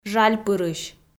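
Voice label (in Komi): "жальпырысь", Komi, female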